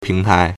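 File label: "ping2tai2", Mandarin Chinese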